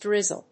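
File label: "drizzle", English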